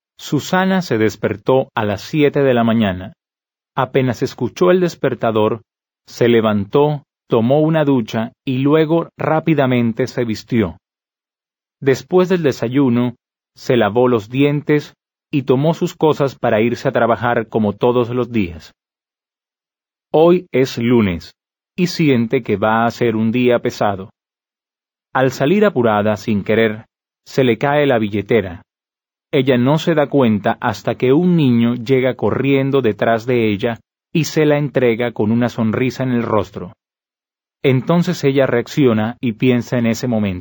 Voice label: Dialogue, Spanish